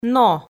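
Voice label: "но", Komi, female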